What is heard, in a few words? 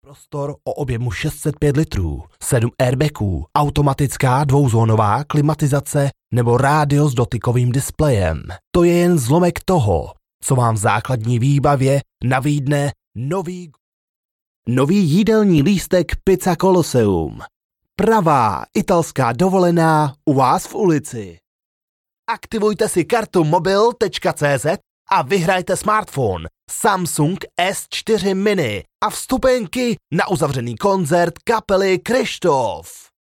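Czech, Commercial; GoLocalise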